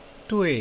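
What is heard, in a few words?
"dui", Mandarin Chinese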